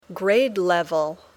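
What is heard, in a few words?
"grade level", English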